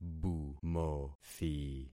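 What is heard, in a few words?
"bumothi", concatenated syllables; Affixing experiment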